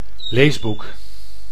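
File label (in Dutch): "leesboek", Dutch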